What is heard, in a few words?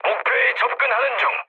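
Korean near waypoint mood medium; War Thunder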